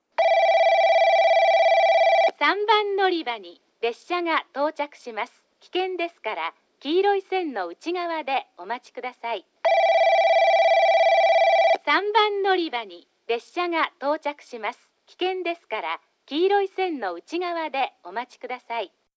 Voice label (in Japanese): 3番のりば接近放送 女声; 宇佐